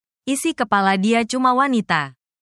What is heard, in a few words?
indonesian phrases